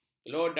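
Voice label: "kelodak", Malay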